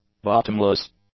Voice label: "bottomless", English; adjectives